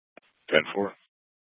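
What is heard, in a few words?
Scottsdale Police South Dispatch; Scttsdle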